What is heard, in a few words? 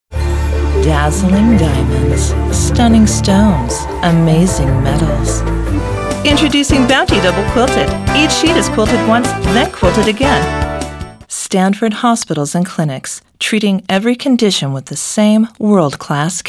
commercial; mccarty talent agency